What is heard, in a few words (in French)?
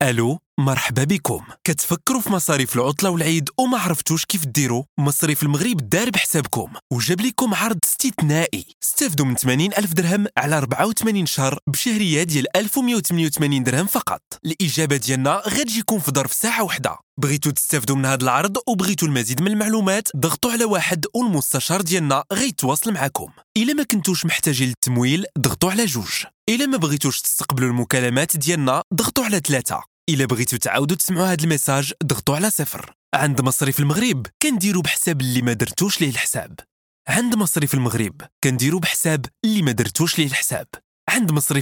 messagerie ivr